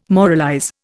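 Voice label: "moralize", Indian English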